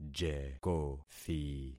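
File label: "jekothi", concatenated syllables; Affixing experiment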